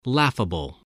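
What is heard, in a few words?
"laughable", English word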